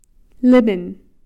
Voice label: "lipin", news